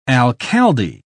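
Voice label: "alcalde", British English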